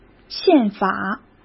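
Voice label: "宪法" Mandarin Chinese; chinese-flashcards; hsk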